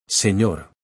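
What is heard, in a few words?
"senor" Spanish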